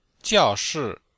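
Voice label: "jiao4shi4", Chinese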